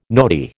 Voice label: "nodi", American